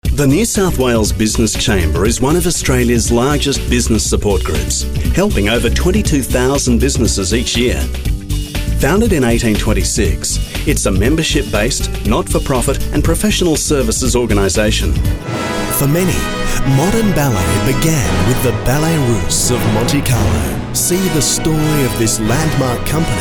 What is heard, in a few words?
corporate